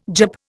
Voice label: "Jap", Indian

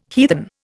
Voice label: "heathen", Indian

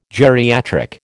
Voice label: "geriatric", American

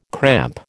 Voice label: "cramp", American

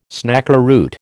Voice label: "snakeroot", American

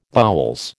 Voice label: "boules", American

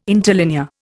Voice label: "interlinear", Indian

